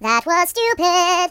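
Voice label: "Stupid", British; Singer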